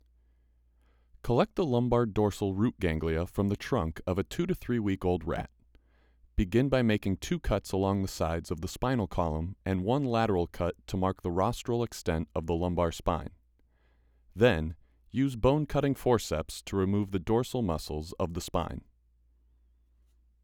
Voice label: voiceover